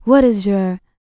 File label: FEM SPOKEN; ILIO; Vocal Planet VOL-4; R&B & Dance